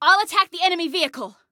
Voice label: Self Attack Vehicle, Female; Female 02